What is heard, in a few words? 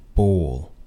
"bowl", anglais